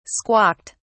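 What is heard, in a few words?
"squawked", British English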